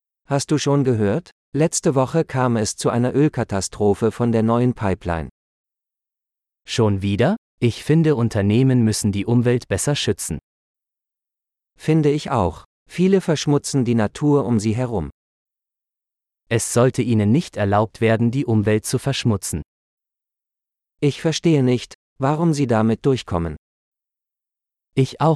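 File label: Environmental Pollution - Dialogue, German; de-en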